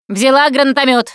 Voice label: grenade launcher, russian, Survivor Voice Producer